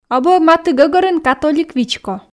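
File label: "абу-ӧ матігӧгӧрын католик вичко", Komi, female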